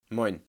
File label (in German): "Moin", German